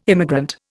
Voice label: "immigrant", Indian